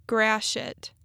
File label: "Gratiot", Michigan Pronunciations